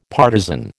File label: "partisan", American